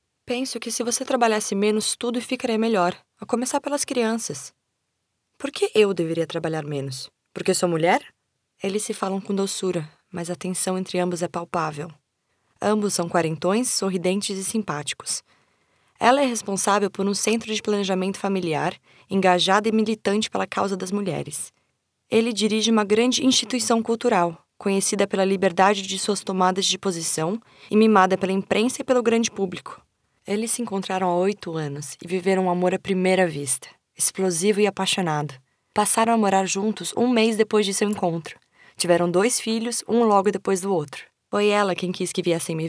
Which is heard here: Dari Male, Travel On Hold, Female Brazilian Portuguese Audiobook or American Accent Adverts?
Female Brazilian Portuguese Audiobook